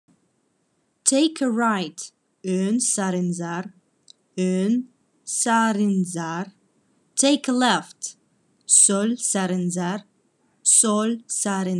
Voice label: Khakas take a right take a left